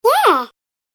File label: "Yeah", Kids Cartoon Game Voice; Recorded Voice Sound Effects